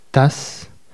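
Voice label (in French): "tasse", French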